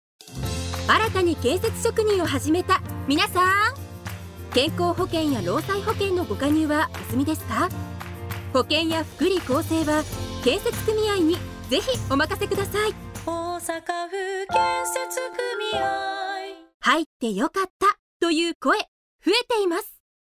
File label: radio; osaka